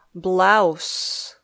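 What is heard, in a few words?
"blouse", English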